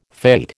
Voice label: "fate", American